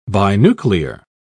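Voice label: "binuclear", English, uk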